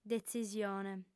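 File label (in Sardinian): "detzisione", female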